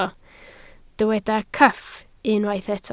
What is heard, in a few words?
"cyff", Welsh